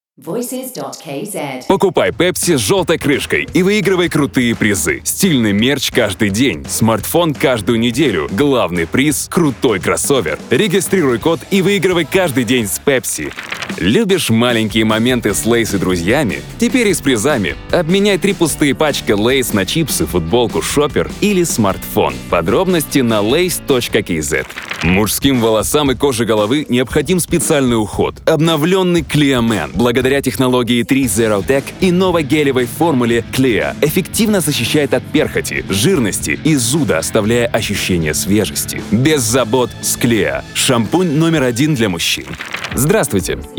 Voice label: rus